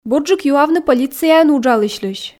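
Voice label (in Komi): "бурджык юавны полицияын уджалысьлысь", Komi, female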